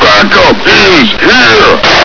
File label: backup here, military; svencoop; echoes